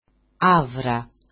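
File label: "avra", Greek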